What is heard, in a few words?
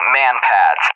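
"MANPADS", English, USA; AirDefence; DCS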